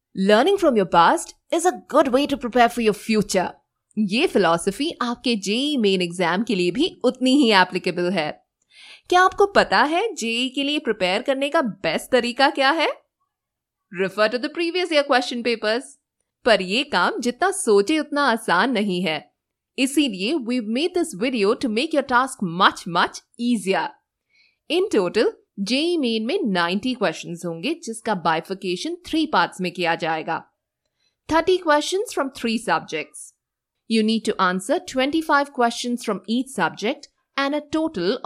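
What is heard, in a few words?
HINDI, E-learning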